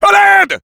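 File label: Sniper help me, french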